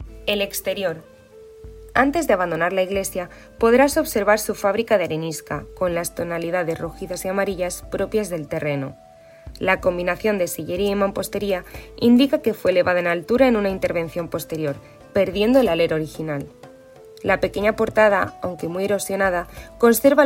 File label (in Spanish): Con musica; Santiago; Exterior; iglesia de santiago de los caballeros; audioguias